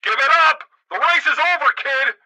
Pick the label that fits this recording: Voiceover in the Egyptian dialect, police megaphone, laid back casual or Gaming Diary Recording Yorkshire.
police megaphone